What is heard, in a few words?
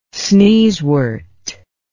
"sneezewort", English